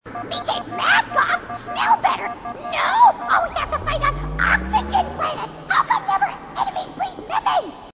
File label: grunt; h2dialogue; grunt crazy